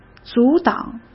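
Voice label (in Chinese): "阻挡", Mandarin Chinese; chinese flashcards; hsk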